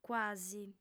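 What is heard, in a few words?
"cuasi", female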